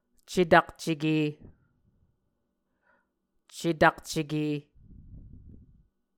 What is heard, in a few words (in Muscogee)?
"cetakceke", Muscogee